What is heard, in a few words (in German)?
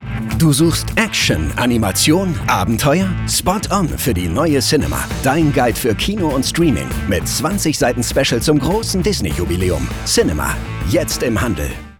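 Cinema, Spots, Männer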